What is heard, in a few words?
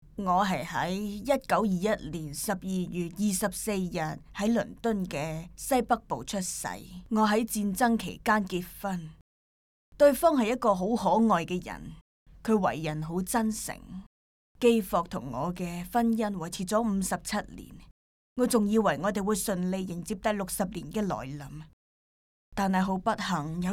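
chinese cantonese female character; voice demos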